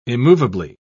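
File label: "immovably", British English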